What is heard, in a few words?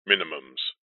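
vehicles aircraft plane cockpit ground proximity warning voice says minumums; zapsplat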